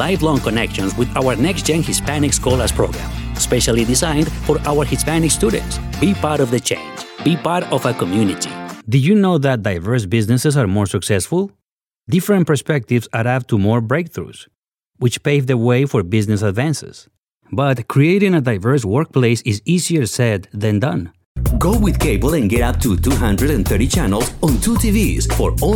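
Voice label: Latin American Spanish, English accented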